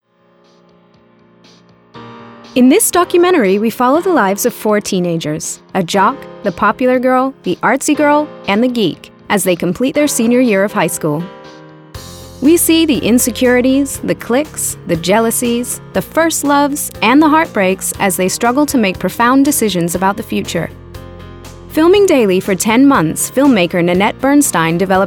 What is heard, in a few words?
NARRATIVE